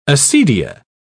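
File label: "acedia", British English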